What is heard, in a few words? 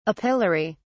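"apilary", British English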